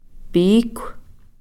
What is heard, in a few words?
"piikhw", skunk